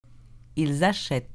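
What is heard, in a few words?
"Ils achètent", French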